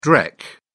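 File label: "dreck", British English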